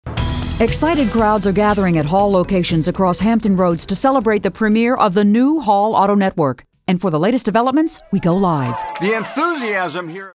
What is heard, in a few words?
newscasters